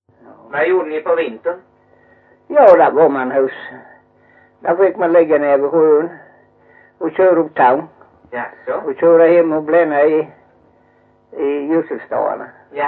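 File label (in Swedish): Mjällby Blekinge, dialekter; Folkminnen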